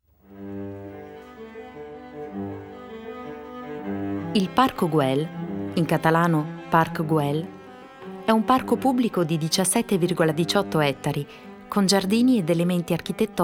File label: italian documentaries